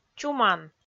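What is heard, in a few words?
"чуман", Komi-Zyrian, female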